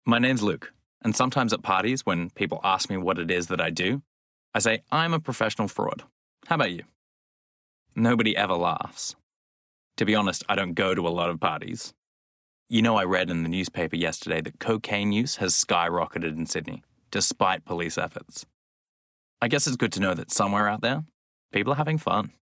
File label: Elegant Young Man Bookread